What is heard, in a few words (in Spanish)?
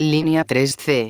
linea 3CE, megafonias; exterior